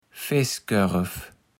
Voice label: "Feßkoerv", Platt

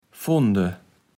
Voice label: "fonde", kaevels platt

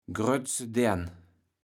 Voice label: "grötse Dern", kaevels platt

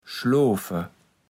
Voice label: "schloofe", Platt

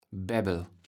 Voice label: "Bäbbel", kaevels platt

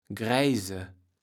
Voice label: "greise", kaevels platt

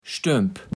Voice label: "stömp", Platt

